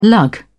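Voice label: "lug", British English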